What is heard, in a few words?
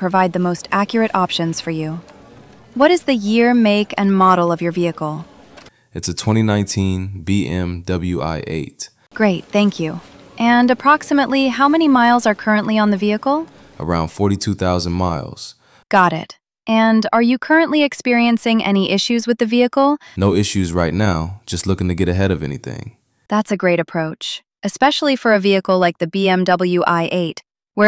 Warranty call center cars; IRIS